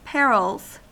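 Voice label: "perils", US English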